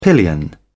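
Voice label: "pillion", British English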